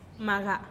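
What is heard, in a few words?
"maga", Teotitlán del Valle Zapotec; mucus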